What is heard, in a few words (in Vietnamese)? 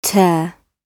"tear" xe, GB